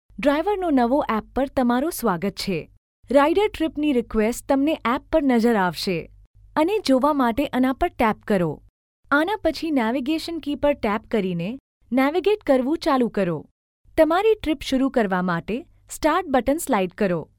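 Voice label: Gujarati Female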